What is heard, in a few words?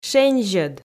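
"шензьӧд", Komi, female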